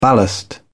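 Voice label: "ballast", British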